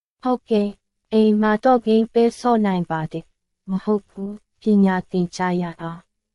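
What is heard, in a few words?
Myanmar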